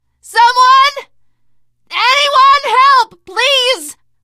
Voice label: call for rescue, teen girl voice; survivor voice

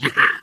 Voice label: ballbot short giddy

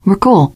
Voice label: you are welcome, teen girl

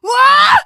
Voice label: fall short, teengirl; survivor voice

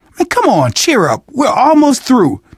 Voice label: zombie generic short, survivor voice; source engine; left 4 dead 2; manager

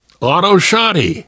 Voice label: take autoshotgun, survivor voice

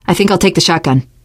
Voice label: take auto shotgun, teen girl, survivor voice; source engine; left 4 dead 2

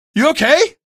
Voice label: buddy killed, male; video games; npc; source engine; episodic; episode 1

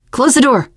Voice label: close the door, teen girl, survivor voice

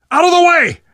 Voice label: down in front, survivor voice

